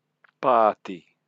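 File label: "pati", Māori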